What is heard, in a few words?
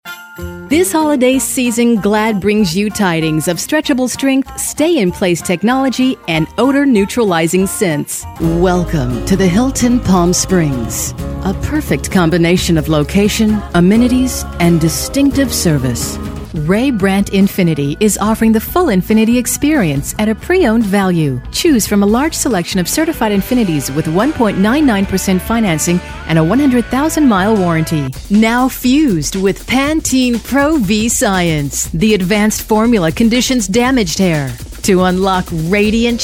Commercial, Female Voice Over; Austin Texas